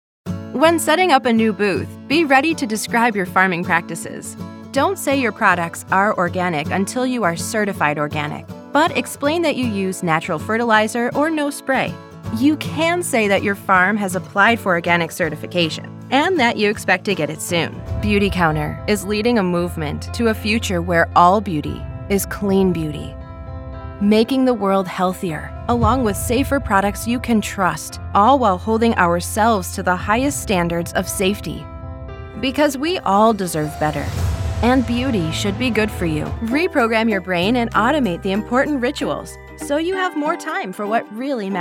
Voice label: Corp Narration